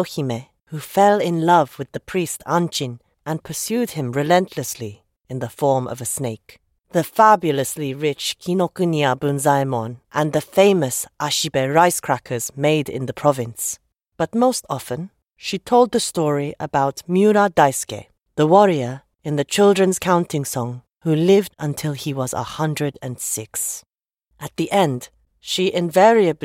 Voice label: Japanese; In the Beginning

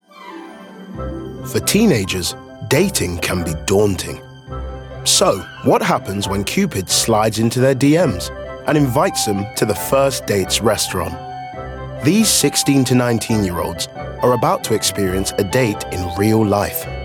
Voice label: doco

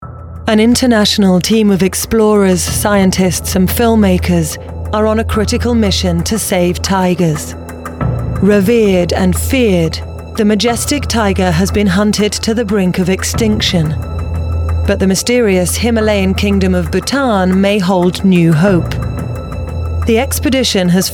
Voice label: Narration

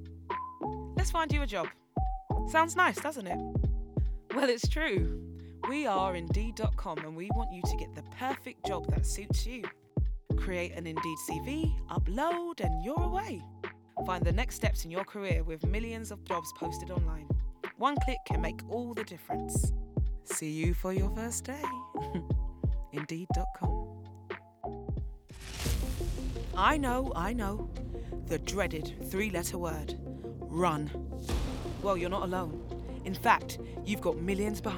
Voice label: Commercial Reel

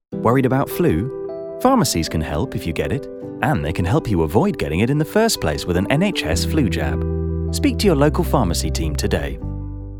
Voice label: Commercial Reel

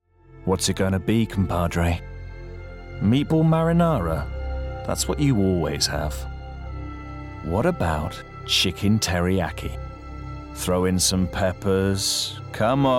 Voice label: Commercial Reel

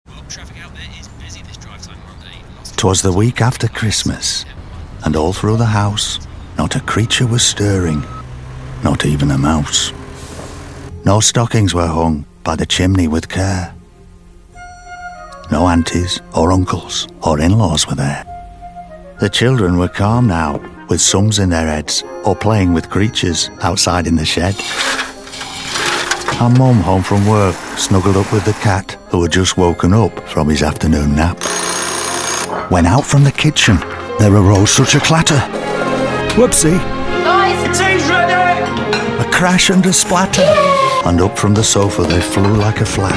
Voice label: Commercial; McCain

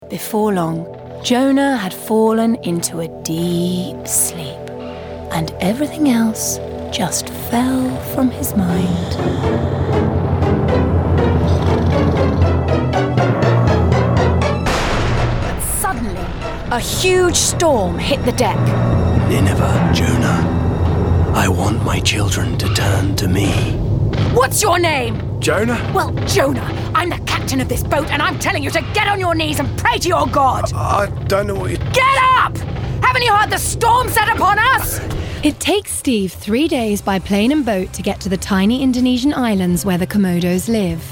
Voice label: narrative

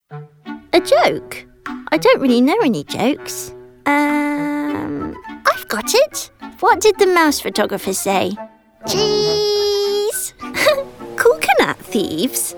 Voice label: animation

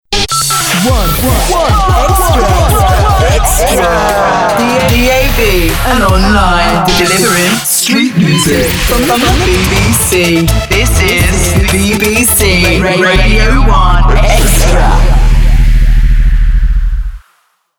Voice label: Radio Imaging; 1 XTRA

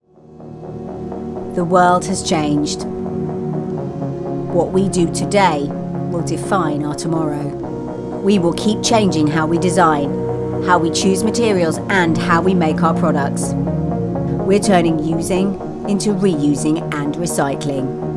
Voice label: Advert, BELIEVABLE; HM

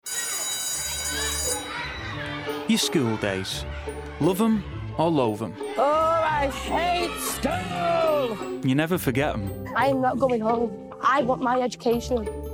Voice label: Narrative Montage

